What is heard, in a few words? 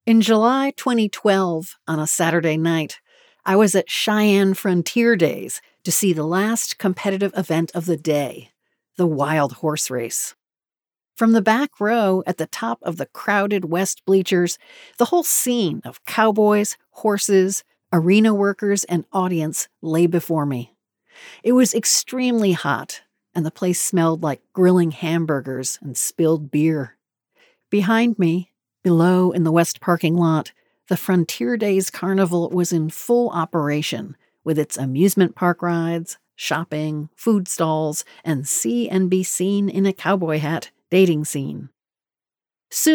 Non-Fiction Rodeo Audiobook